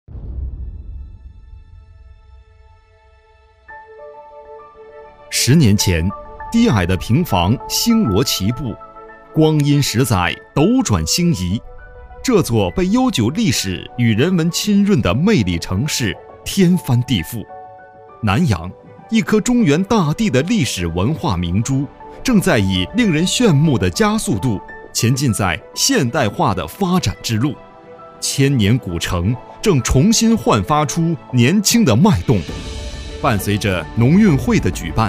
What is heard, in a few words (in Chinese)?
男 地产 房地产公司宣传